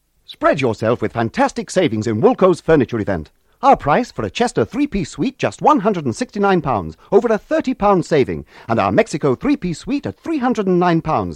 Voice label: Radio Advert; Woolco Furniture Event; Radio Hallam

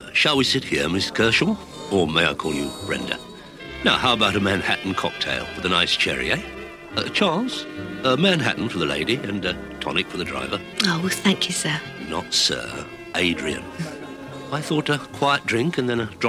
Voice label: Radio Advert; Volvo; Arthur Daley; Radio Hallam

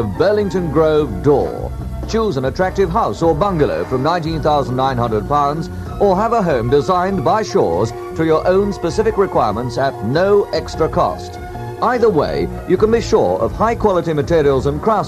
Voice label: Radio Hallam Advert - Homes; Shaw Brothers

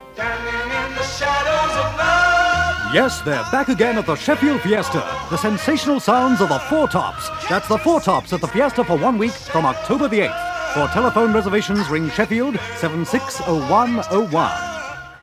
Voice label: Radio Advert; Radio Hallam; Fiesta

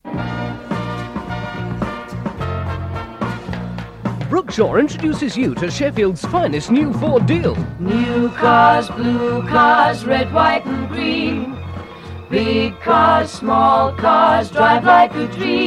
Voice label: Radio Hallam Advert; Brook Shaw Ford Fiesta Deals

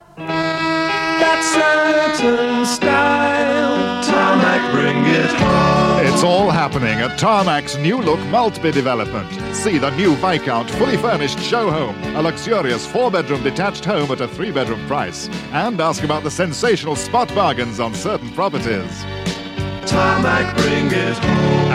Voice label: Radio Advert; Radio Hallam; Tarmac Homes

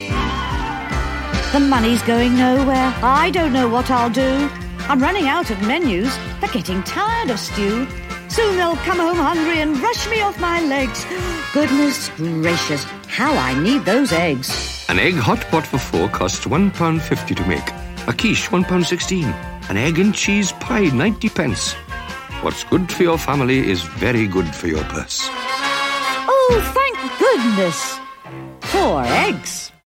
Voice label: Radio Advert, Eggs Hotpot for 4; Radio Hallam

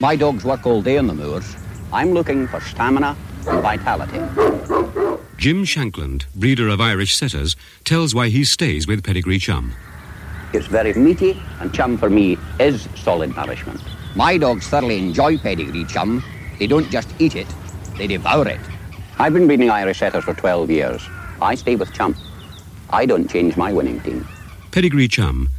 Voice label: Radio Hallam Advert; Pedigree Chum

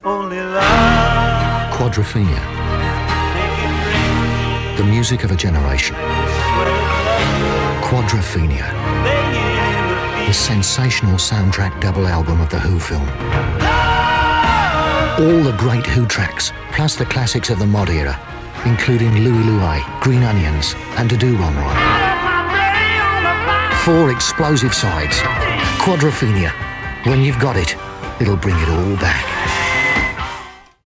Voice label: Radio Hallam Advert; Quadrophenia

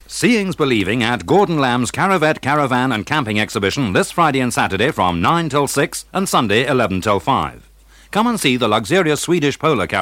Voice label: Radio Hallam Advert; Gordon Lamb Camping

